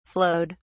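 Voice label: "flowed", British English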